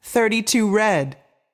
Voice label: voice Over, roulette; americanroulette3